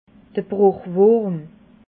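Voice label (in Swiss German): "brochwurm", Alsatian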